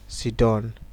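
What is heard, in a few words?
"siddon", Nigerian Pidgin, male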